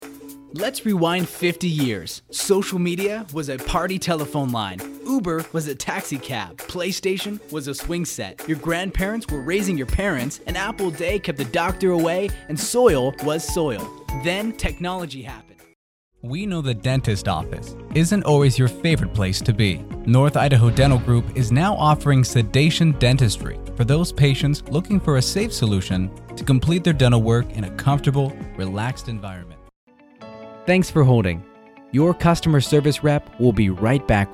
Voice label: Voiceover